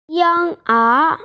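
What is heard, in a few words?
"yinger", Mandarin Chinese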